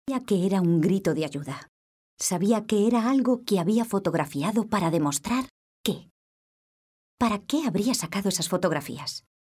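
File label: spanish female character; voice demos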